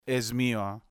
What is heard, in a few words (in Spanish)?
"es mio", español